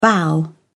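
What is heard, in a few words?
"bow", British English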